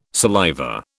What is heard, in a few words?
"saliva", British